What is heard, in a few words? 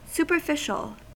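"superficial", US English; pronunciations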